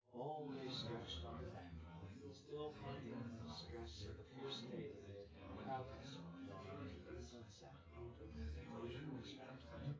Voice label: male synth conv

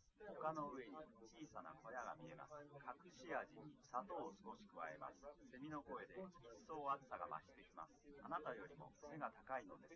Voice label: male, live rec, 250ms, 5cm; SiSEC08; SiSEC underdetermined

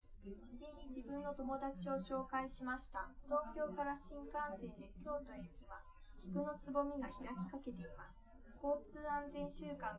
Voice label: female liverec; SiSEC11; underdetermined

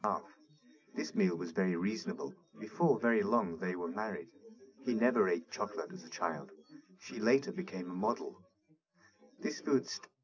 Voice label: male, 130ms, 4cm

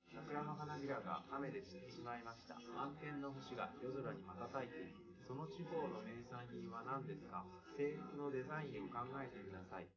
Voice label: male4 liverec 250ms 1m sim

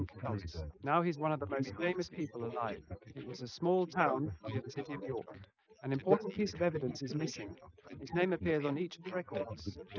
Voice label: male, inst

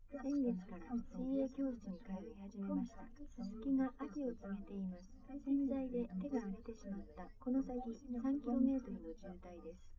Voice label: female liverec 130ms 5cm; SiSEC10; underdetermined

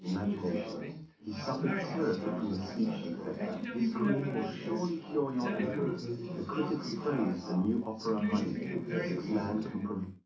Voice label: male3 380ms 20cm, underdetermined; SiSEC11

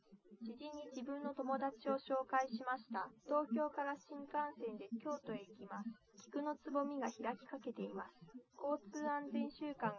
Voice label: female liverec 130ms 5cm; SiSEC08; SiSEC underdetermined

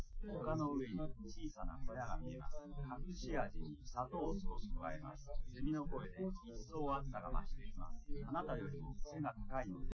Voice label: male, live rec; SiSEC08; SiSEC underdetermined

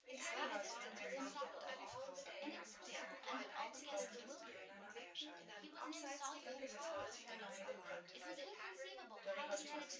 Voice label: female synthconv

